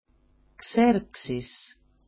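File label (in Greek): "kserksis", Greek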